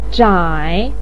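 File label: "zhai3", Mandarin pinyin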